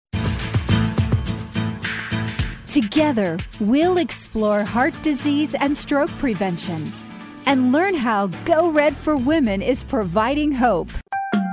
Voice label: commercial; Female Voice Talent